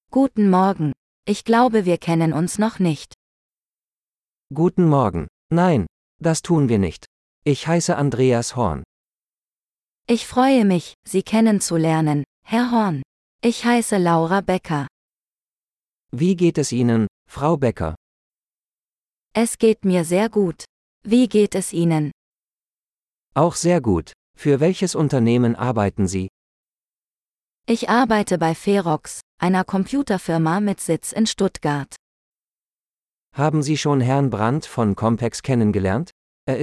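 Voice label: Formal Introduction - Dialogue, German; de-en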